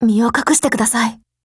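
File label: DEFENSE, Japanese; T5000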